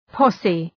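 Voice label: "posse", English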